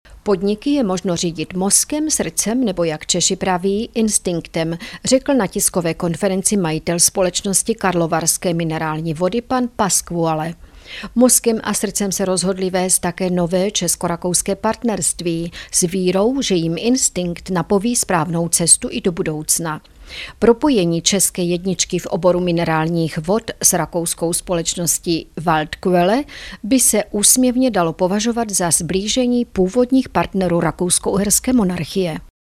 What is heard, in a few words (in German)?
Tschechien W